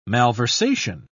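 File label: "malversation", English, uk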